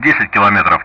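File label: Speech Sound Russian Common Player; DCS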